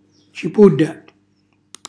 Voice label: "cipulla", Calabrese; cipolla

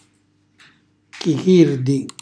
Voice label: "chichirdi", Calabrese; castagne arrostite

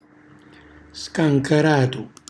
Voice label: "scancaratu", Calabrese; cucina calabrese

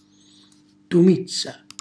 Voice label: "tumizza", Calabrese; cacioricotta; cucinacalabrese; glossario

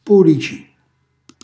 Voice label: "pulici", Calabrese; cucina calabrese; Glossario

